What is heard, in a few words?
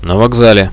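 at the station, Russian, radio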